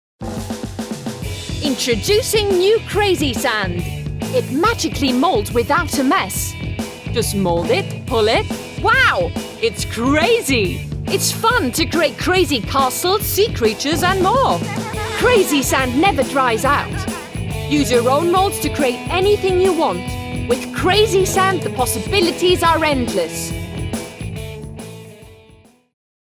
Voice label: Ad English; CrazySand; LOFT VOICES